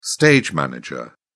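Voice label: "stage-manager", British English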